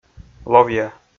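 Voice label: "ловъя", Komi, male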